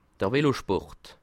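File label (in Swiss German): "velosport", Bas Rhin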